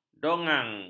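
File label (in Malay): "dogang", Malay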